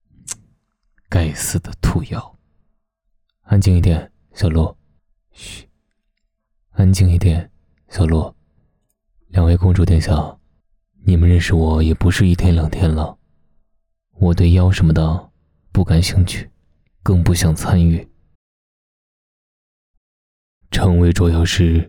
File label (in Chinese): 高冷风